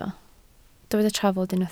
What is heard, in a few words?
"trafod", Welsh